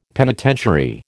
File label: "penitentiary", American